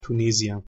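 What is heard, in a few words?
pronunciation German "tunesier"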